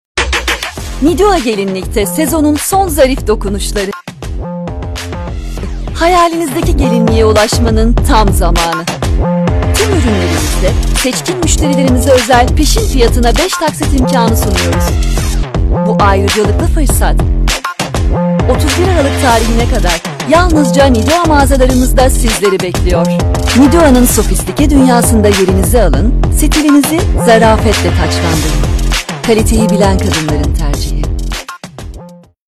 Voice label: turkish promos, turkish voice actors